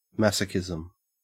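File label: "masochism", Australian English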